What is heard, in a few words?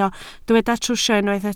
"trwsio", Welsh